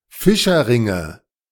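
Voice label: "Fischerringe", German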